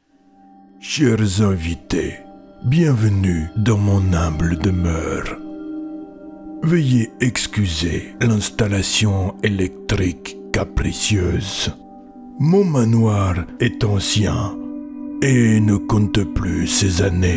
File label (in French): Escape Game Paris avec fond sonore